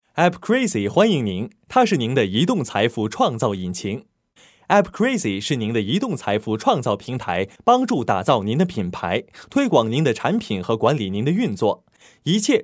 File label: mandarin corporate